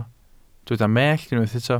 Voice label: "mellt", welsh